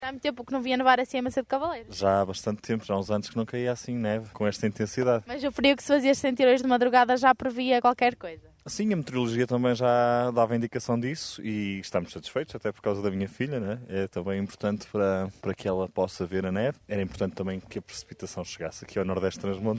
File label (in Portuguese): Vox Pop Idosos